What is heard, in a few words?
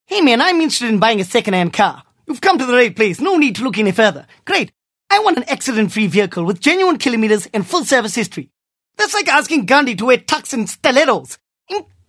Accent Indian